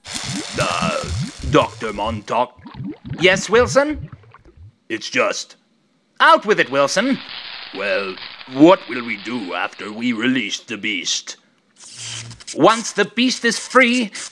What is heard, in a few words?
Voice Message